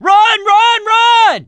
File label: yell run, voice, mechanic, survivor